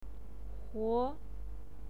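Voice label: "huo", Mandarin Chinese pinyin, second tone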